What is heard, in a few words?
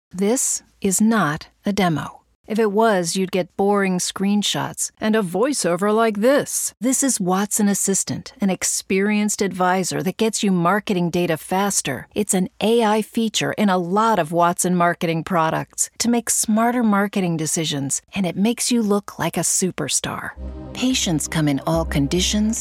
english american corporate videos